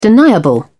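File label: "deniable", British English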